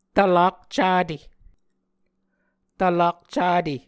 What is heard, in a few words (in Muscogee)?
"tvlak cate", Muscogee